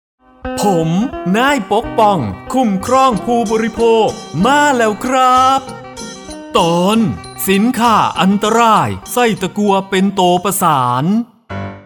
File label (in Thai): สปอตวิทยุ; ภาคตะวันออกเฉียงเหนือ; สื่อประชาสัมพันธ์; สคบ.; สำนักงานคณะกรรมการคุ้มครองผู้บริโภค